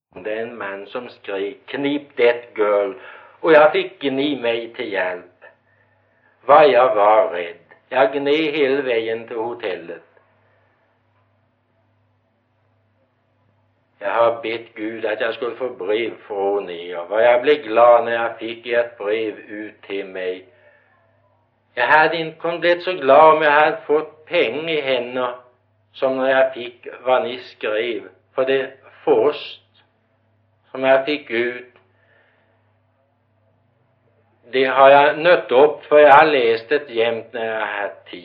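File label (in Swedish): Gårdby Öland, svenska sagor dialekter; Folkminnen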